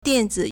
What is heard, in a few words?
"dian3 zi", Chinese